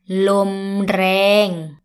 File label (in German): windig; adjektive